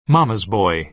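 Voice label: "mama's boy", American English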